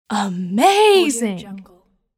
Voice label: female voice amazing